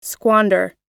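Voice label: "squander", American English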